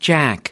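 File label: "jack", American English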